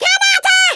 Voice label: "grenade", German; Worms Armageddon